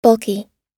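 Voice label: "bulky", American English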